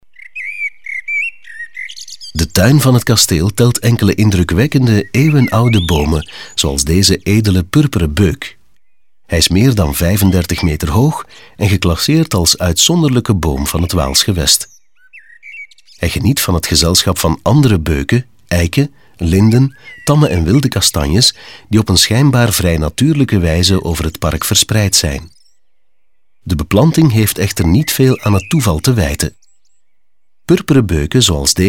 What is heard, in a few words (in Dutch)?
audioguide nl adulte; modave